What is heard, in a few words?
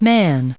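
"man" English, American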